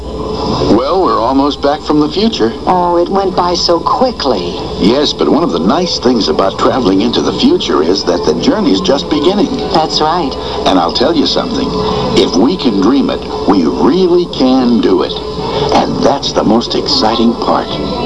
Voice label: exciting